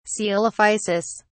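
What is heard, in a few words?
"coelophysis", English, British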